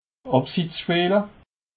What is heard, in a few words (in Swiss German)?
"abseitsspeel", alsace